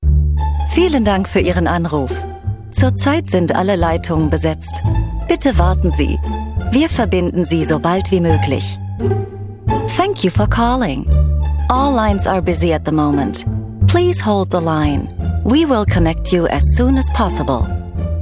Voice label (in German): Telefonansage deutsch englisch; LOFT VOICES